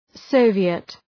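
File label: "Soviet", English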